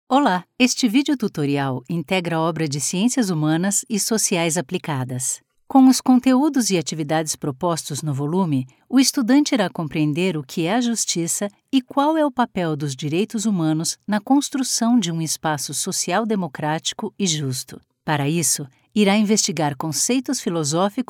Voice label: E Learning